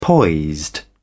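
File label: "poised", British English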